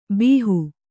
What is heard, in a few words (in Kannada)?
"ಭಾಹೊ", Kannada